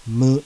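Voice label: kana "MU", Japanese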